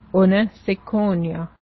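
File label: cook right now, Cayuga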